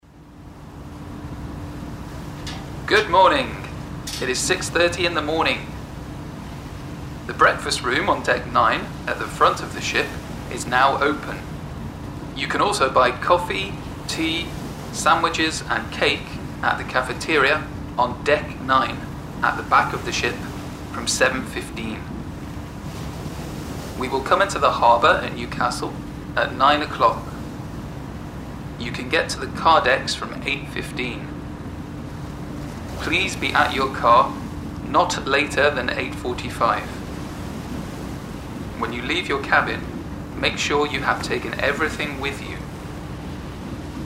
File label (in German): Lautsprecheransage verstehen; Englisch 5 6